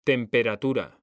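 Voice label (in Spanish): "temperatura", Spanish; mekanta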